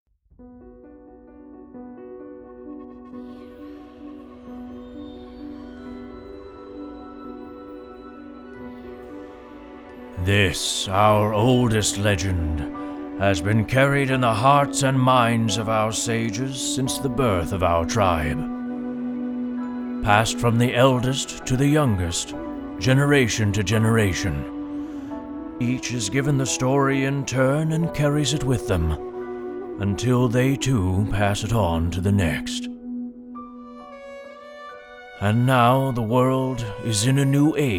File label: voiceover prologue